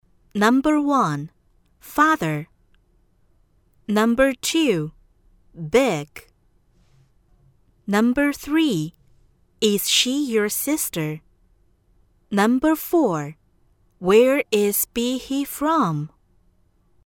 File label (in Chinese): 女 英文测试 听力